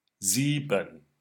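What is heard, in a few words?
"Sieben", German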